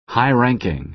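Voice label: "high-ranking", American English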